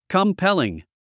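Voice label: "compelling", English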